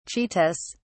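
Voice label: "cheetahs", British English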